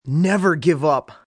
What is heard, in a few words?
Never Give Up, Sayings, male; Talking Sound Effects